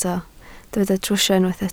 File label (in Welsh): "trwsio" Welsh